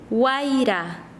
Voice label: Chanka, quechua; viento